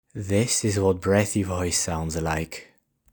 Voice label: Breathy Voice; Linguistics; Phonetics; Speech Production